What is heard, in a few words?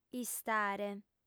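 "istare", female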